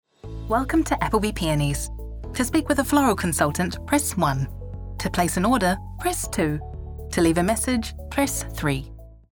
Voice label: english new zealand ivr